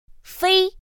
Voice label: "fēi", pinyin; pinyin2; pinyinji